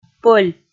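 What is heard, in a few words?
"пӧль", Komi, female; kpv audio dict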